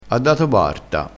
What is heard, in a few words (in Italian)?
"barta", crespinese